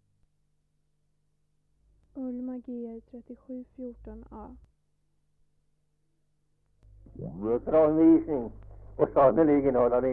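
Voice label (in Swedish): Hille Gästrikland, Svenska sagor, dialekter; Folkminnen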